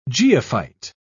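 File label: "geophyte", British English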